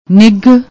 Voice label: "nigh", Punjabi

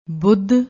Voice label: "budh", Punjabi

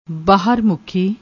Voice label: "baahar mukhi", Punjabi

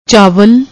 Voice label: "chaaval", Punjabi; ਚਾਵਲ

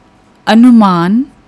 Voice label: "anuman", Punjabi

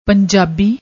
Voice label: "panjabi", Punjabi

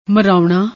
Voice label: "maraouna", Punjabi; ਮਰਾਉਣਾ

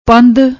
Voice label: "pandh", Punjabi

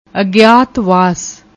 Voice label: "agiatbas", Punjabi

